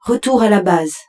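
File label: French, return base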